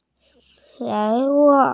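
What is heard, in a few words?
"fertile", Mandarin Chinese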